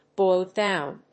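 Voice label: "boil down", English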